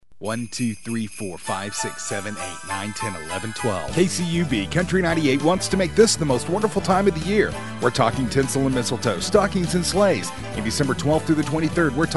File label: Promo